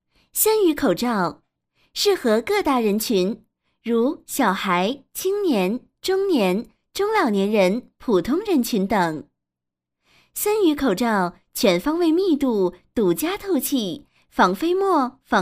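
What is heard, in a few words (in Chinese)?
广告口罩; 森宇口罩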